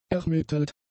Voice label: "ermittelt", German; adjectives